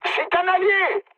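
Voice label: player friendly fire, mood high, French; War Thunder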